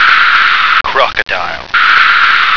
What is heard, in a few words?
"crocodile", American